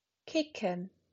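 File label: "kicken", German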